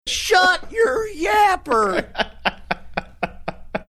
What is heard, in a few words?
saying shut your yapper funny